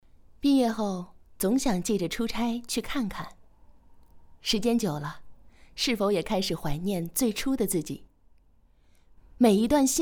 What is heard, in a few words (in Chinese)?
夸张版